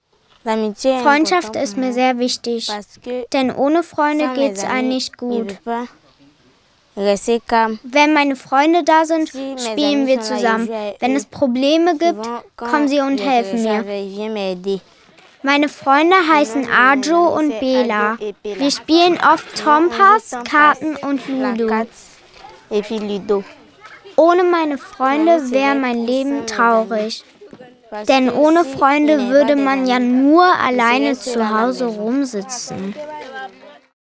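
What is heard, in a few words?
Overvoice, German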